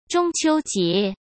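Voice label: "中秋节", Mandarin Chinese; hsk; chinese-flashcards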